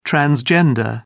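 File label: "transgender", British English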